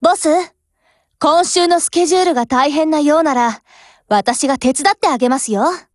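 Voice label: "HELLO" Japanese; Glock17; GF Alarm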